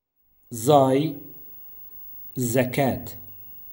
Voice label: Zay, modern standard arabic